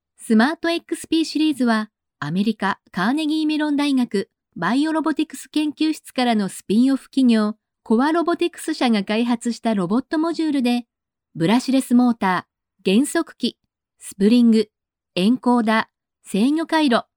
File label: female japanese voice over narrative